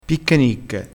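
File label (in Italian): "picchenicche", dialetto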